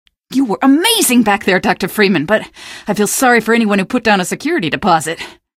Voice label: tenant, male; Source Engine; video game; streetwar; tunnel